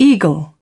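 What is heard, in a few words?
"eagle", British English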